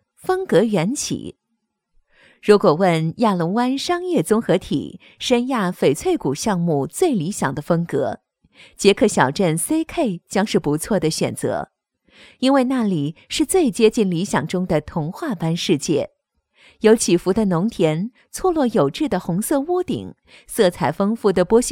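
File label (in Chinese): 多媒体 地产项目介绍, 女, 普通话; 项目规划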